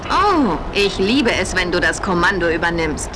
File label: Whisper